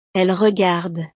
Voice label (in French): "elles regardent", frances